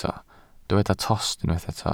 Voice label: "tost", Welsh

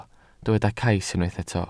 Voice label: "cais", welsh

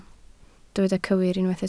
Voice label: "cywir", Welsh

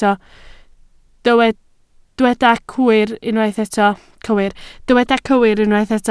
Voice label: "cywir", Welsh

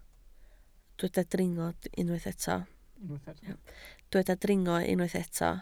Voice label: "dringo", Welsh

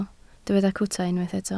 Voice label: "cwta", Welsh

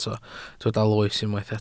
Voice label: "loes", Welsh

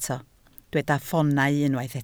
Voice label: "ffoonau", Welsh

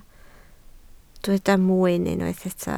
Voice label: "mwyn", Welsh

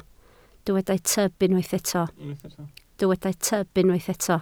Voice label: "tyb", Welsh

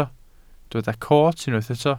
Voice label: "coot", Welsh

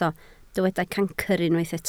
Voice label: "cancr", Welsh

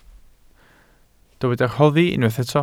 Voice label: "rhoddi", Welsh

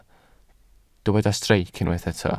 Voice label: "streic", welsh

